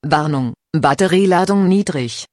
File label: Low Battery, Voice, DE